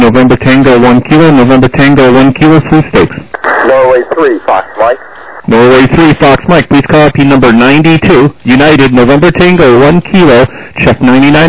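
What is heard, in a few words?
lower sideband 7MHz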